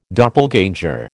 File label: "doppelganger", American